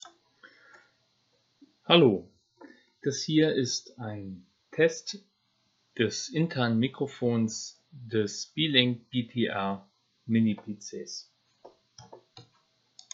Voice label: Mini Microphone Test sample; Beelink GTR